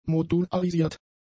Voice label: "modularisiert", German; adjectives

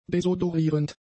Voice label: "desodorierend", german; adjectives

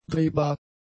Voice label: "drehbar", German; adjectives

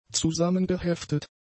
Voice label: "zusammengeheftet", German; adjectives

